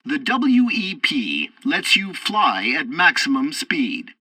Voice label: tutorial fighter throttle, english; War Thunder